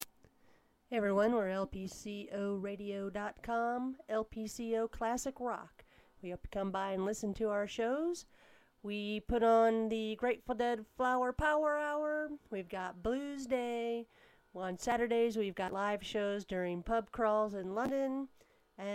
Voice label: klassic radio spot